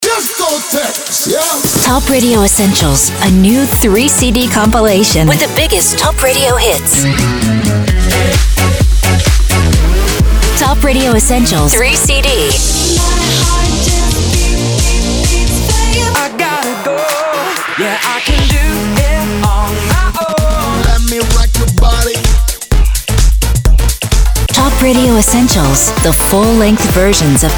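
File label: commercial, radiospot; topradio essentials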